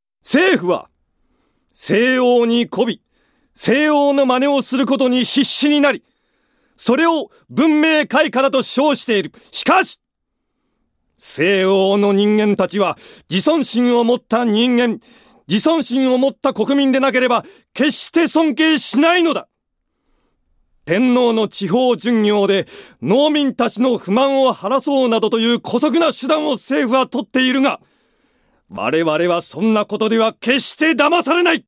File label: male voice